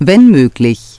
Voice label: "wenn möglich", Navigation; DISTEFORA Navigation; Mobile Navigator Notebook

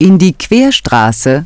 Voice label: "in die Querstraße", Navigation; DISTEFORA Navigation; Mobile Navigator Notebook

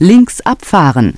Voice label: "links abfahren", Navigation; DISTEFORA Navigation; Mobile Navigator Notebook

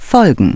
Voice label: "folgen", Navigation; DISTEFORA Navigation; Mobile Navigator Notebook

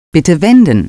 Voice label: "bitte wenden", Navigation; DISTEFORA Navigation; Mobile Navigator Notebook

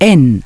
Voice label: "N geschlossen", Navigation; DISTEFORA Navigation; Mobile Navigator Notebook

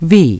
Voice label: "W geschlossen", Navigation; DISTEFORA Navigation; Mobile Navigator Notebook

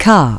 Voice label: "K geschlossen", Navigation; DISTEFORA Navigation; Mobile Navigator Notebook

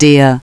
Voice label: "der", Navigation; DISTEFORA Navigation; Mobile Navigator Notebook

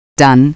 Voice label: "dann", Navigation; DISTEFORA Navigation; Mobile Navigator Notebook

